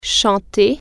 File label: "sing", French